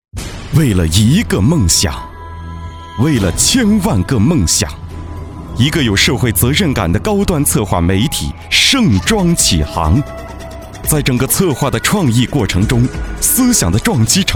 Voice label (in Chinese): 男 专题; 百饰婚礼